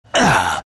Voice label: venm pain; dota; venomancer